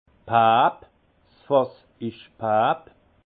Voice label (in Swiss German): "pappe", alsace; étanche